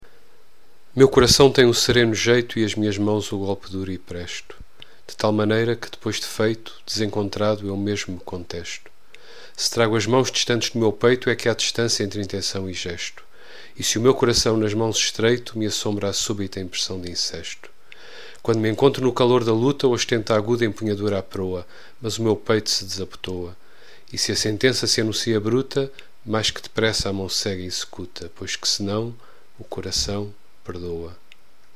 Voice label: voz, portuguese